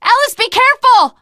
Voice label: teengirl, voice; Left 4 Dead 2